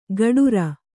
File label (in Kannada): "ಗಡುರ", Kannada